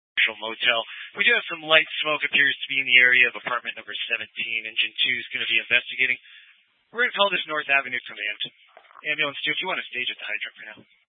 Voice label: Incident Tac 1, Scanner audio recording